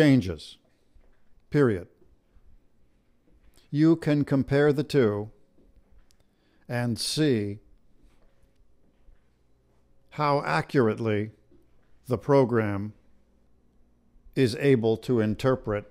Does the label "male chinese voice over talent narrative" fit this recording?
No